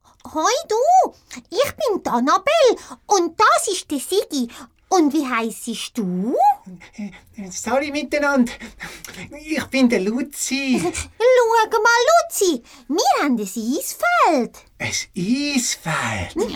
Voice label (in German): Hoerprobe, Sprecherin